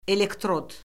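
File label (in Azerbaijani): "elektrod", Azerbaijani; Orfoqrafiya Lüğəti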